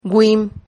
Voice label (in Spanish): "güim", Silabas